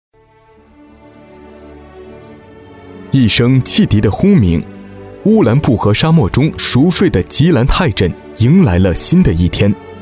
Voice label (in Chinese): 解说 人物; 王荣德